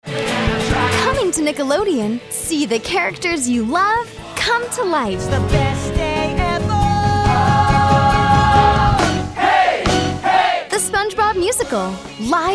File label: promo women